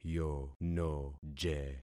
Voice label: "yonoje", concat syllables; Affixing experiment